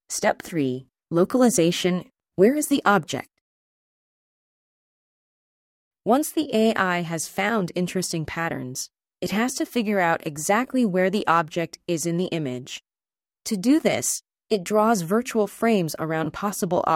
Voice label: english; schueler step 2